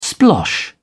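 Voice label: "splosh", British English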